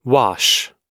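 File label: "wash", American English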